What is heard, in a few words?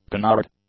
"canard", English